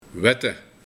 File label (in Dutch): "Wette", Slijpen